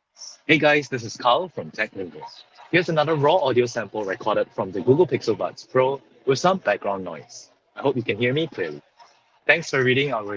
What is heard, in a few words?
Google Pixel Buds Pro Audio Sample